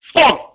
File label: "fuck" irate black man; Voice; expletives